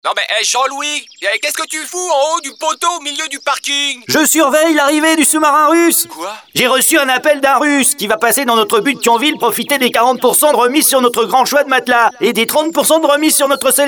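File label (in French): spot, spots radio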